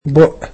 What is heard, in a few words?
"mouth", egyptian